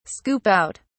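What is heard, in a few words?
"scoop out", British English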